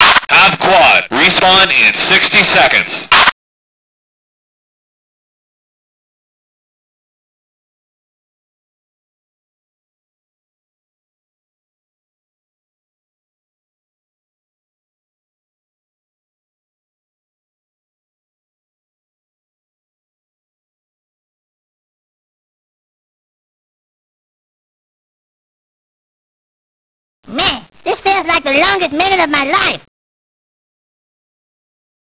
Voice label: male quad counter; lmctf

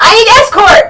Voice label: fem escort, voice; lmctf

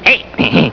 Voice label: "hey", speech

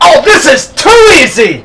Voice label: male gotcha, voice; lmctf

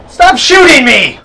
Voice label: male stop shoot; lmctf

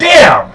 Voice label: male "damn"; lmctf

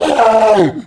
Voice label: infantry pain

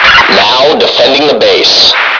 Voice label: fem now def; lmctf

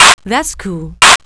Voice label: fem that's cool; lmctf

